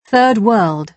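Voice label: "third world", British English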